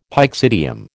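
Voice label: "pyxidium", American English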